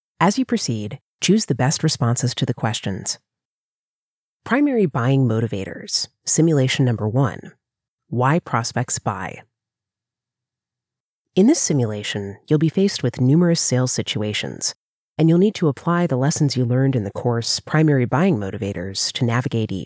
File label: eLearning; buying motivators